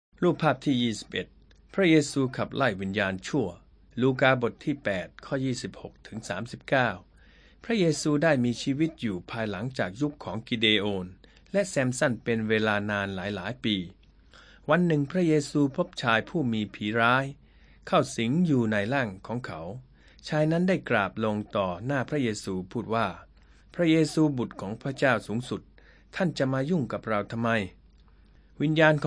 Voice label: thai central